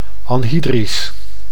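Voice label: "anhydrisch", Dutch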